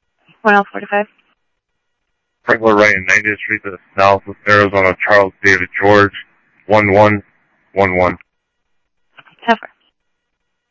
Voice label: PD Police, scanner audio